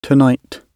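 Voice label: "tonight", British English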